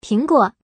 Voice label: slot fruits female